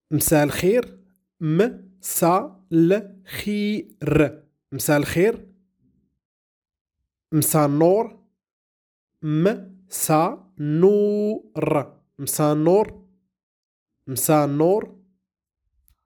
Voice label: how to say good evening in moroccan arabic darija